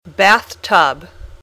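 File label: "bathtub", English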